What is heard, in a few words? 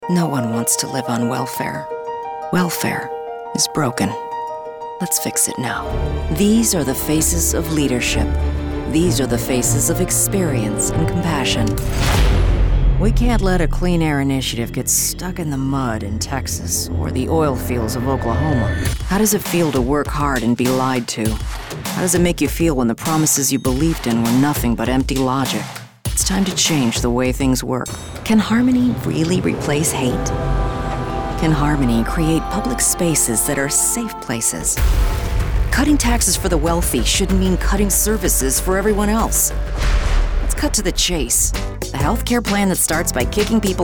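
political voice over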